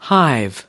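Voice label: "hive", American English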